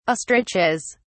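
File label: "ostriches", British English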